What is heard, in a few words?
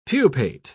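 "pupate", British English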